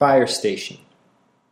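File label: "fire station" English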